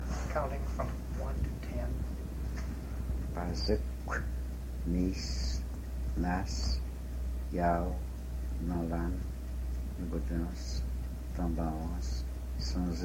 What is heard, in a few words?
counting 1-10, western abenaki